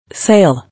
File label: "Sale", English